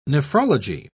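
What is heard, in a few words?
"nephrology", British English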